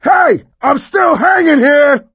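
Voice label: ledge hang middle, voice, male; Left 4 Dead 2; coach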